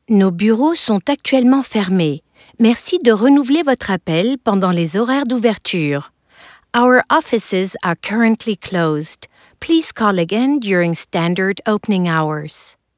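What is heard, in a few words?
French English Business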